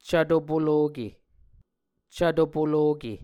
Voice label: "cvto poloke", Muscogee

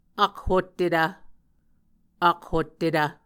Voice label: "akhottetv", Muscogee

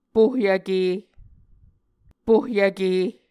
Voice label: "pohyvke", Muscogee

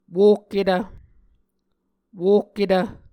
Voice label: "wohketv", Muscogee

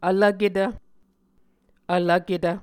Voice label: "vlvketv", muscogee

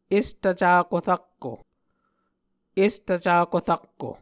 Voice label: "estvcako rakko", Muscogee; Mvskoke Words with Voicing Consonants; Onvkv Ohhvyayices; A Dictionary of Creek/Muskogee; SIL International

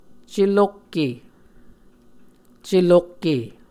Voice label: "celokke", Muscogee; Mvskoke Words with Repeated Consonants; Martin, Jack B., and Margaret McKane Mauldin. 2023. A Dictionary of Creek/Muskogee

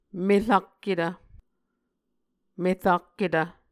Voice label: merakketv, muscogee; Mvskoke Words with Repeated Consonants; A Dictionary of Creek/Muskogee; SIL International

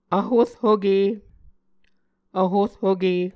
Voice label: "vhorhoke", Muscogee

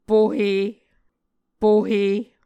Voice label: "pohe", Muscogee

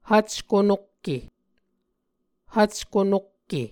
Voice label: "hvcko nokke", Muscogee; Mvskoke Words with Repeated Consonants; Onvkv Ohhvyayices; A Dictionary of Creek/Muskogee; SIL International

